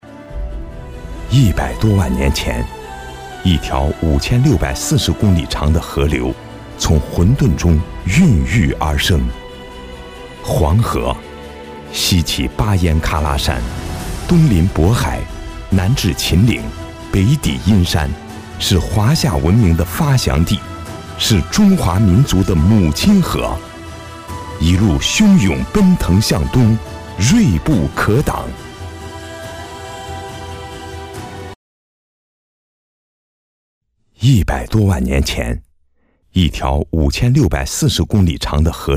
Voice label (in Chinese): 男, 历史; 西安